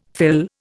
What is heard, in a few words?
"fill", Indian